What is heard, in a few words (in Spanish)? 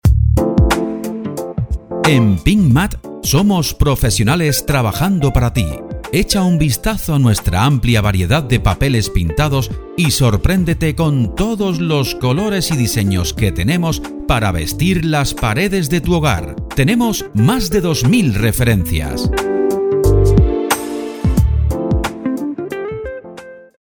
ESPANOL; pinturas andalucia